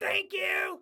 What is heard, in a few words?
Team Thanks, Excited Guy